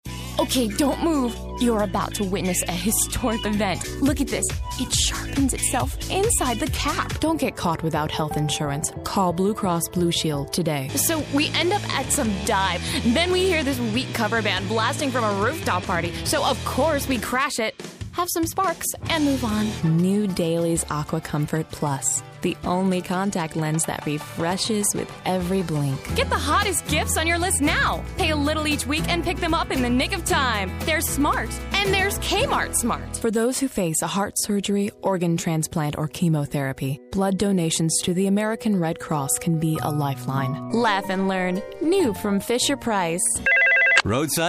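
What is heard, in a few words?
english US female commercial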